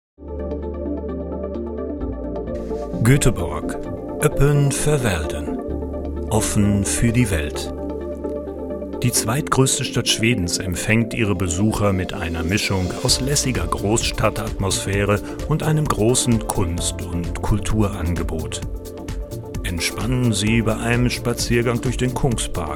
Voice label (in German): deutsch